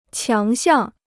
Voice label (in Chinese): "强项", Chinese